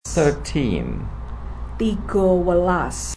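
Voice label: "Tiga welas" Javanese; 13 thirteen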